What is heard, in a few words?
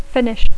"finish", English